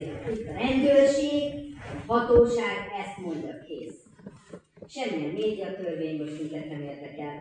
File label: male pitch; A rendőri eskü